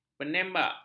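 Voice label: "penembak", Malay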